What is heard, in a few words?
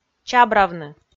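"чабравны", Komi, female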